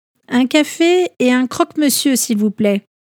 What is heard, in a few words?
un cafe et, French; everyday french a1 a2